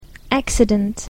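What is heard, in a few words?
"accident", English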